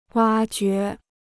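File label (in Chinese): "挖掘" Chinese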